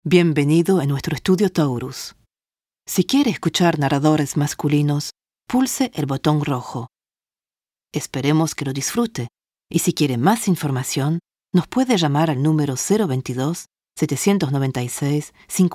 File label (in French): espagnol; casting de voix-off; taurus-studio